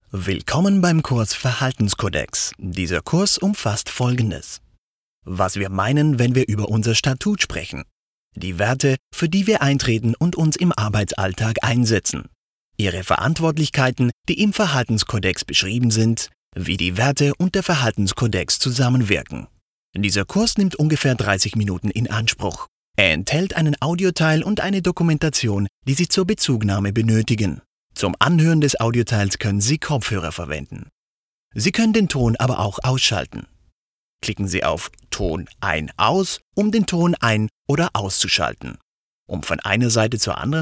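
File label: German General; GoLocalise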